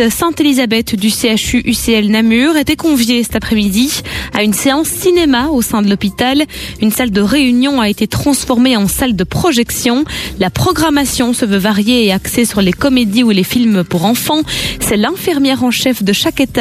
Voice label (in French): Radio News; Radio Contact; Contact News 17h; Cinema for all; Presse FR